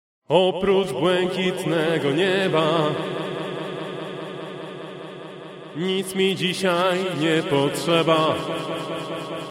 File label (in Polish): glos echo